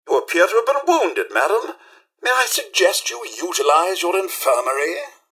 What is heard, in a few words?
robots dialog hello; mrhandy; npc